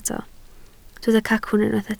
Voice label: "cacwn", Welsh